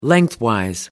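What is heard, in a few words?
"lengthwise", British English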